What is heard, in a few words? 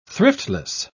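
"thriftless", British English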